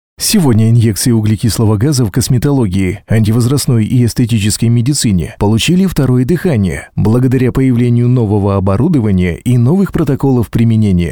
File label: russian podcasts